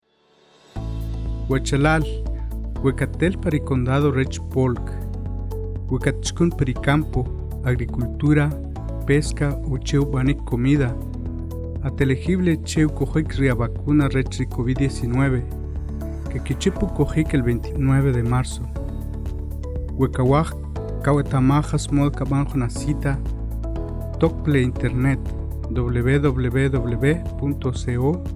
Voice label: K'iche'; Polk; Vaccine Radio Spots; covid19